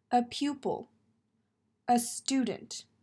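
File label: "A pupil a student", English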